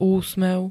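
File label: "úsmev", Slovak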